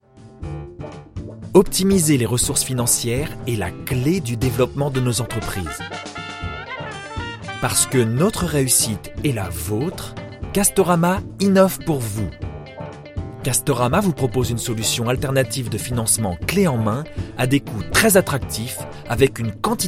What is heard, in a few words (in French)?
instit medium naturel; voix off masculine; voix off masculine naturelle, posée, souriante